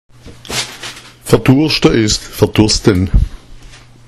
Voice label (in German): "verdurschta", schwaebisch; Verdurschta ist verdursten